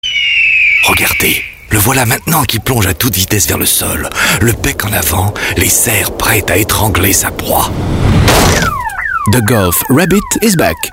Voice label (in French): DOCU ANIMALIER ironie grave